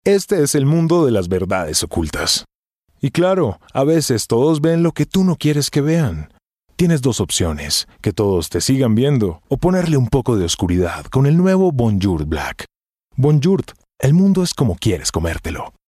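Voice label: Latin American Spanish, male, commercial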